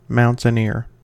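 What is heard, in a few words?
"mountaineer", US English; pronunciations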